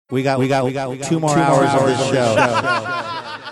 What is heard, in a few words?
saying we got two more hours echo reverb